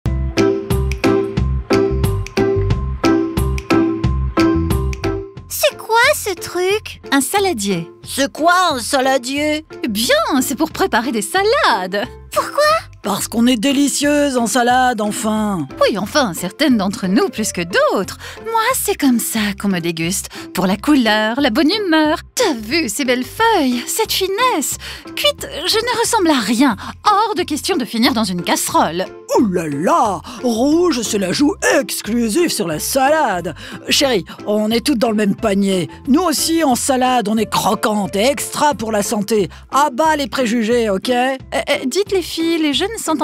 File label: cartoon; film motion; perle du nord